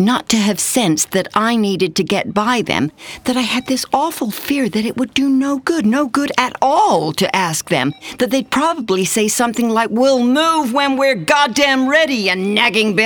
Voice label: Narration Tuna Fish

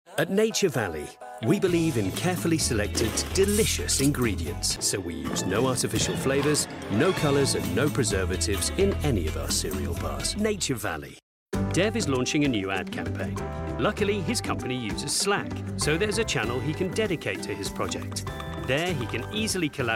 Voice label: Commercial

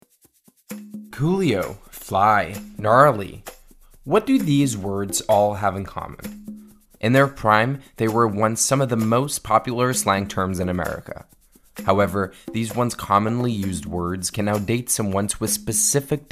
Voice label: Educational American

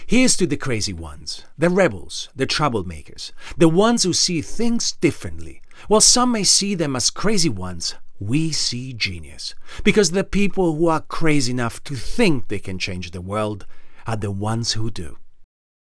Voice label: Commercial English

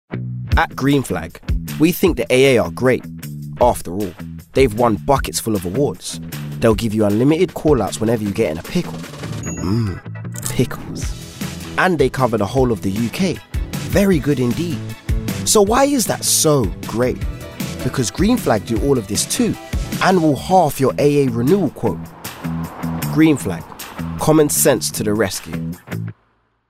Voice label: Commercial; Greenflag

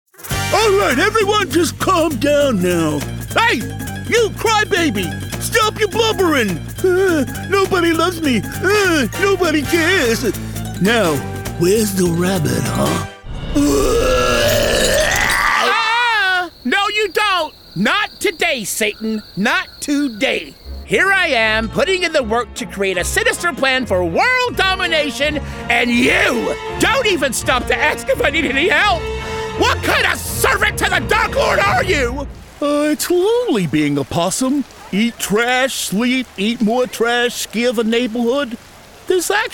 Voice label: ANIMATION

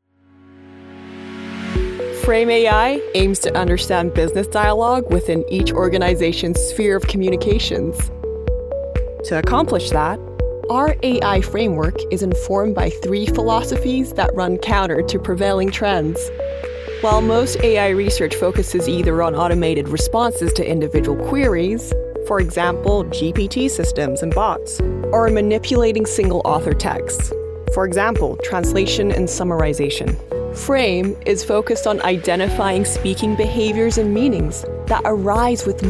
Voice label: Corporate; FrameAI

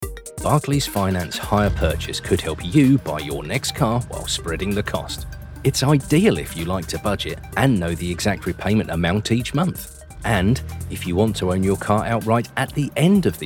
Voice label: Corporate

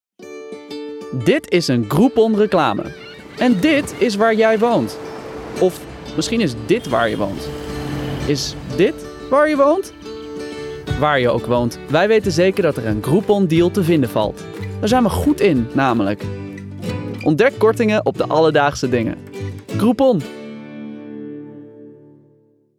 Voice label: Dutch Commercial

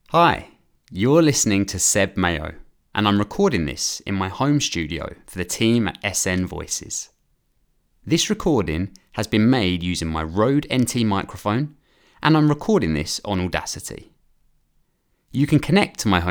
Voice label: HOME STUDIO SAMPLE

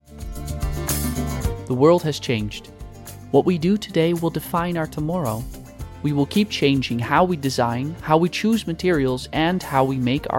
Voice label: dutch accent commercial

